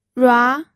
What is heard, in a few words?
"rua2", pinyin